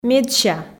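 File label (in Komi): "медся", Komi, female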